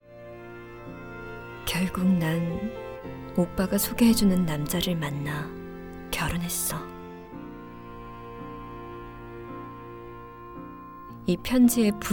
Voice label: 감성적인 내레이션 독백연기

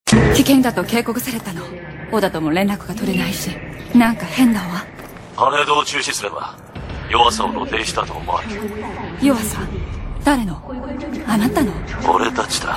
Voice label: game 30대 여 일본어 앨리트 차가운느낌

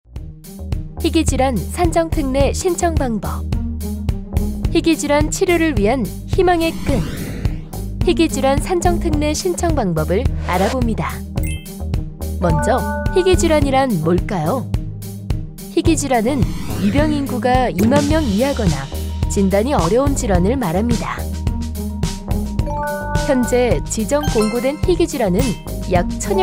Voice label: 홍보 차분한 똑똑한 30대; 질병관리청

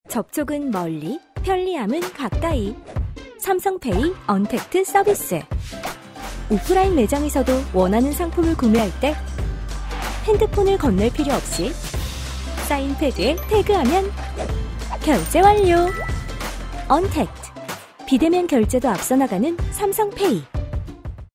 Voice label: 인포그래픽 자연스럽고 깔끔한 내레이션; 삼성페이

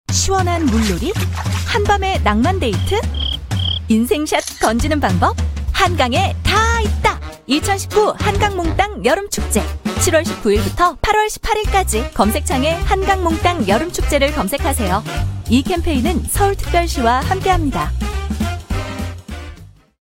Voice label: 밝은 친절한

